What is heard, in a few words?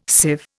"sieve", Indian